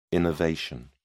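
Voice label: "innervation", British English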